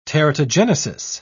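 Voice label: "teratogenesis", British English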